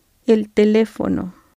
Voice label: phone, spanish